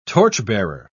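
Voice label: "torchbearer", British English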